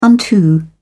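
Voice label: "unto", British English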